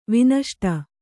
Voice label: "ವಿನಷ್ಟ", Kannada